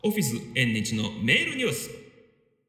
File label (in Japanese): リバーブ